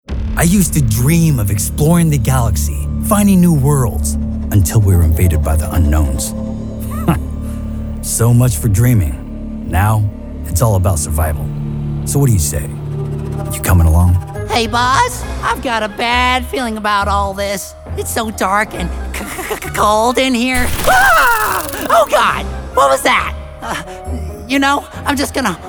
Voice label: vo character demo